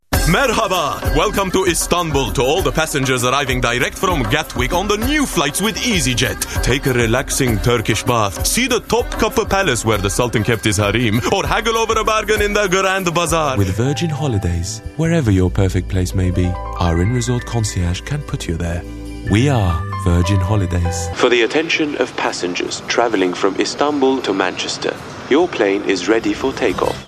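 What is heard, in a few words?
Turkish English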